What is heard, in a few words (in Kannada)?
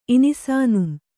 "ಇನಿಸಾನುಂ", Kannada